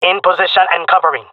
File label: in position, male; player vo; Counter Strike Model Pack; CS:GO